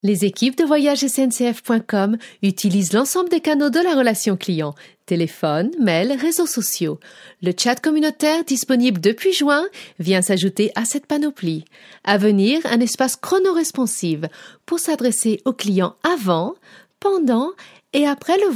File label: corporate, french, female